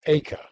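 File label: "acre", Received Pronunciation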